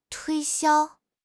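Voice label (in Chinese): "推销", Chinese; HSK6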